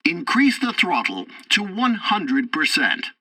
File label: tutorial fighter throttle, english; War Thunder